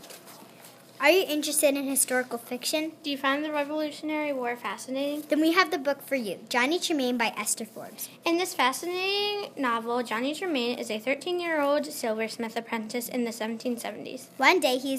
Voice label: radio ad